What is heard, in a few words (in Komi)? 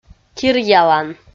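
"киръялан", Komi, female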